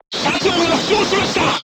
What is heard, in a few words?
voice message commander wounded, crew tanks japanese